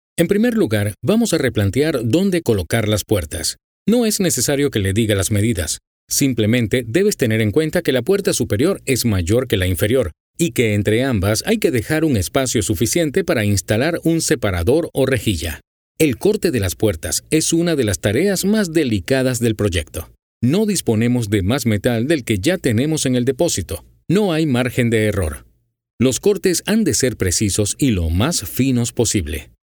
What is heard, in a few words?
Elearning; Instructivo